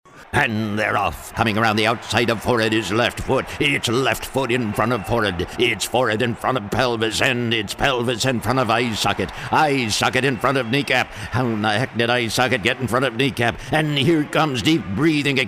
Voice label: Characters Male; commercial voiceover